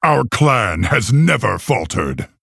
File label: Male Orc